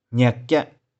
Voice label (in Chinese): "nih4kih4", 玉環